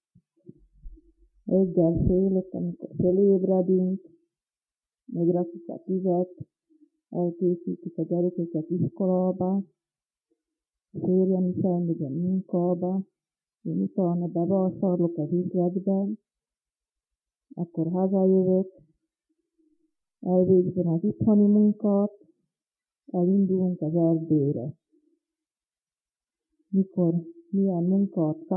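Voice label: dialect collection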